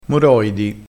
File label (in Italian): "moroidi", crespinese